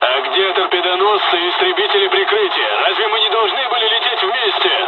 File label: dialog, dialogs russian; us midway; War Thunder